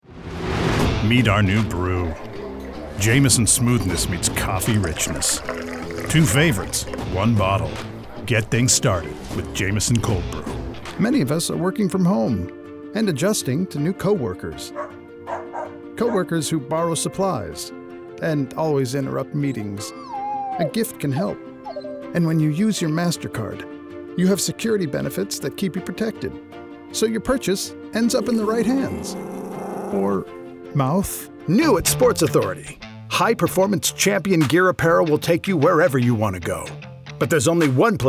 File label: commercial; demo